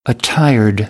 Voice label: "attired", American English

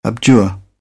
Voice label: "abjure", British; AmericanBritish

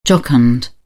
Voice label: "jocund", British; ɑː - ɒ; English

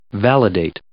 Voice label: "validate", English

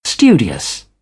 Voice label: "studious", British; AmericanBritish

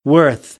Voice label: "worth", American English; ɝː - ɜː

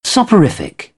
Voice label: "soporific", British English

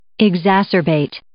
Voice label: "exacerbate", English

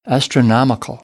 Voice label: "astronomical", American; English

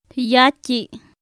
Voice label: "yatyi", Popoloca; anona; INALI

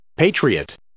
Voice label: "patriot", English

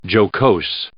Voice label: "jocose", English

